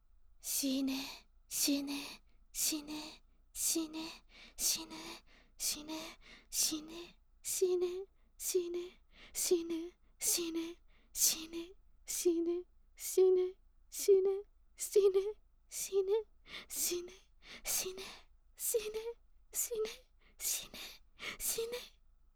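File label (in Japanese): "しね", Japanese; 死ね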